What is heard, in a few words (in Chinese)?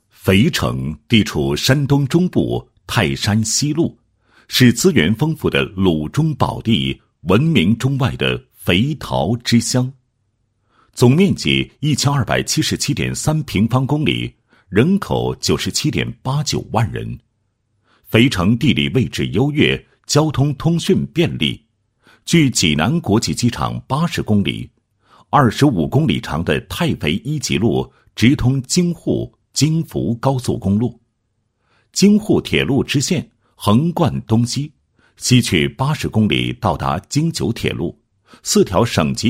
男, 企业介绍; 肥城市综合物流园区项目配音